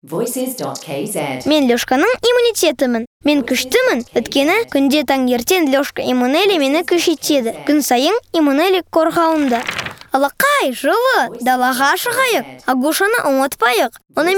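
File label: Kazakh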